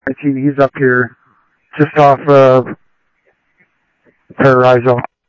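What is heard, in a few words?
police department, scanner audio trunk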